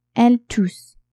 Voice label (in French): "elle tousse", French; tousser